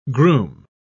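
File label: "grume", British English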